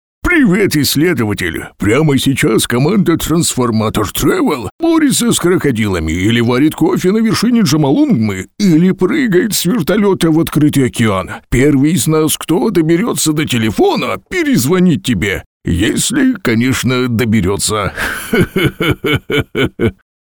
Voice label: russian video games